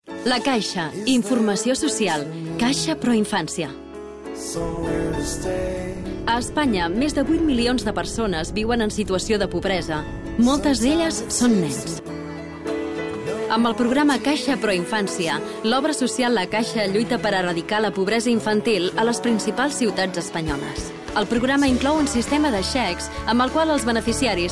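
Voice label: catalan tv shows, female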